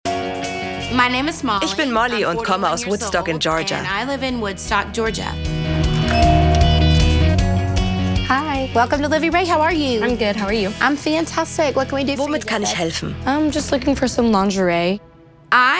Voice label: VoiceOver

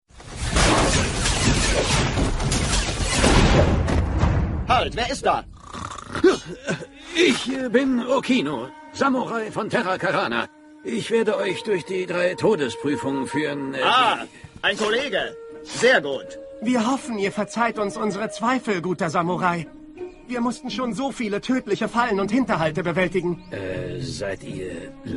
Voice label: Sync Anime; Ninjago

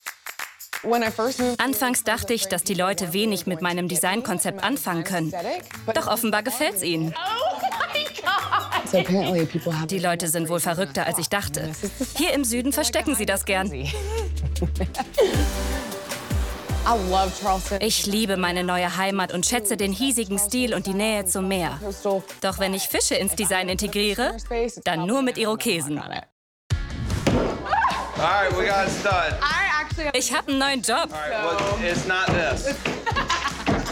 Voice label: Voice Over; freudig / lebendig

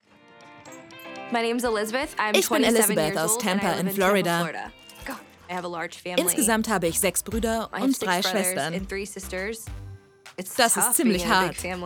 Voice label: VoiceOver

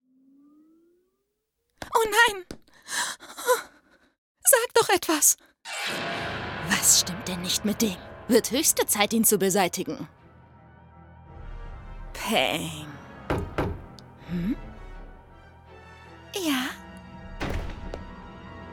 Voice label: Anime

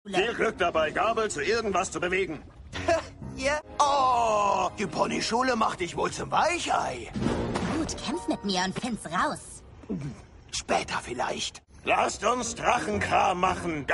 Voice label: Sync Anime; MylittlePony; Trick

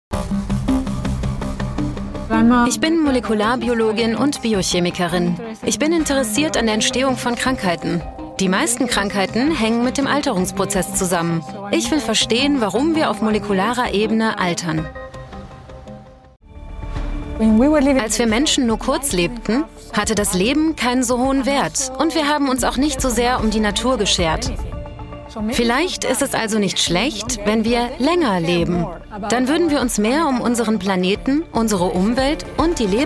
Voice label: Voice Over; Doku 2

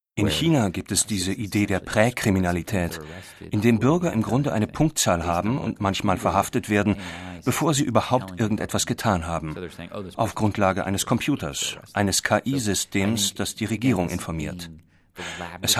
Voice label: Voice Over, Kuenstliche Intelligenz; rbb Kulturradio

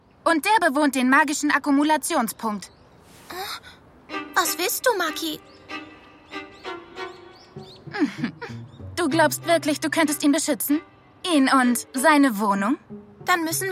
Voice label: Anime; Maki; Rolle Animé - böse

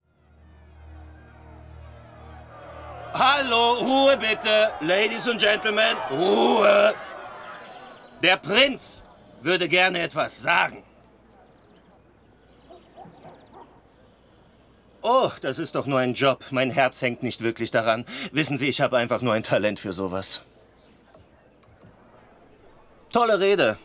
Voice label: Animation; autoritär / sanft

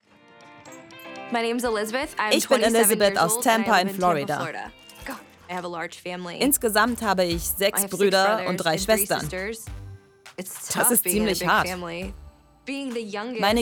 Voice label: Voice Over

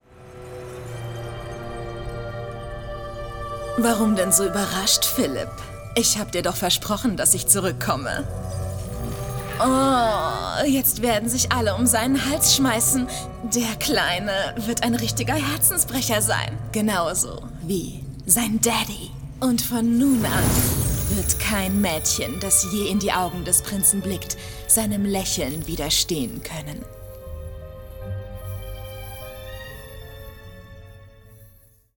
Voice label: Animation

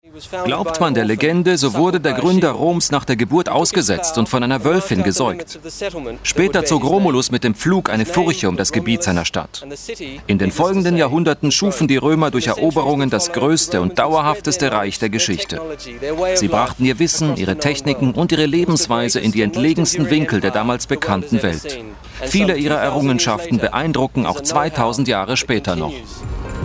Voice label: Voice Over; Host-Erzähler; Schatztruhe-des-Wissens(Nat.Geogr.)

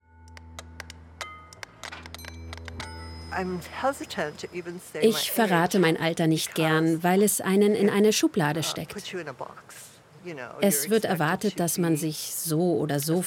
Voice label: Voice Over

